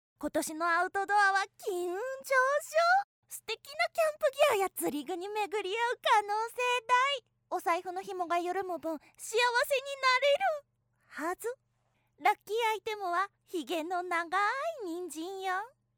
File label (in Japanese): outdoor